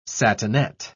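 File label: "satinette", British English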